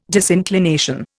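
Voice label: "disinclination", Indian English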